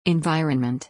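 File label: "environment", English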